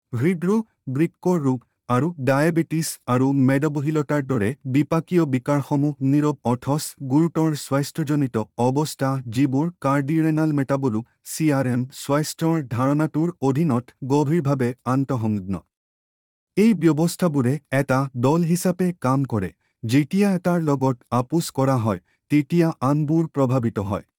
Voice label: video Male Assamese

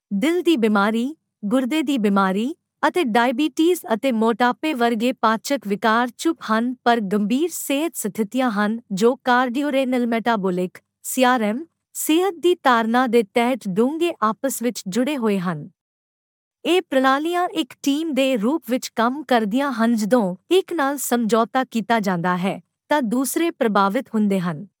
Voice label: Female Punjabi

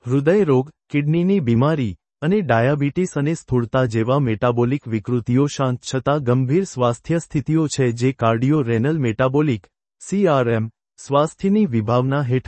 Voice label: video Male Gujarati

